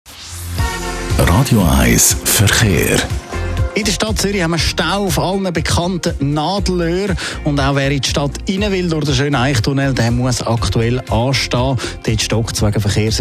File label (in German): Radio Verkehr; Radio 1; VBZ